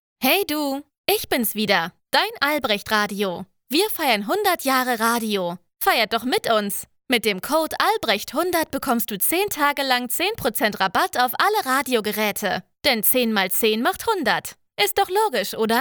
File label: VoiceOver Radio